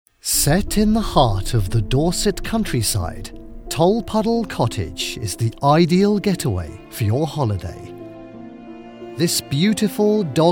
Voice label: full audio with music; Tolpuddle Cotage